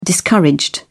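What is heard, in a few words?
"discouraged", English, British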